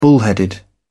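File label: "bullheaded", British English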